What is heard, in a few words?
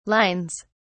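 "lines", British English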